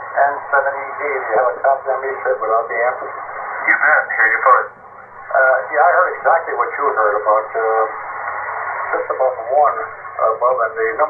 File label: voice cleaned